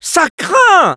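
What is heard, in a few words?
Scout jeers, French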